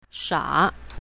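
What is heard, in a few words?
"sha3", Chinese pinyin, south, female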